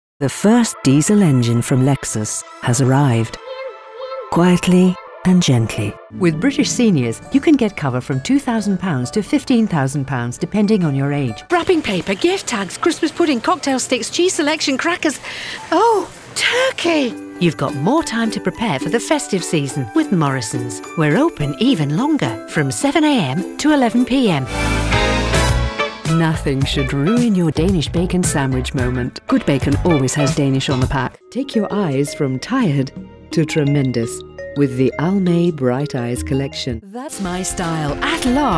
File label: TV COMMERCIALS